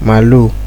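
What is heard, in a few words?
"malu", Nigerian Pidgin, male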